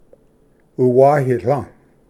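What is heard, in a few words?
"uwayihlv", Cherokee; ᎤᏩᏱᏢ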